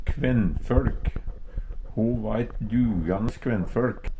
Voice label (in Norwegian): kvennfolk, Numedalsmål; Ho va eit duganes kvennføLk.